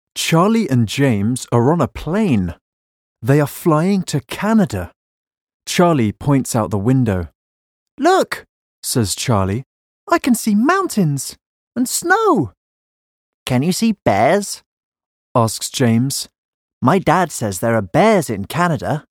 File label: Canadian Snowstorm Extracts